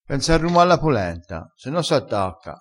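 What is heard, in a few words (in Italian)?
"ruma", vocabolario dialettale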